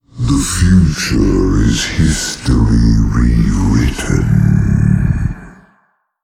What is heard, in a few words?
Male Old God; TRIGGER DECK OF CHAOS